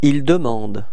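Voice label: "demander il", French; frans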